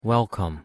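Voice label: "welcome", English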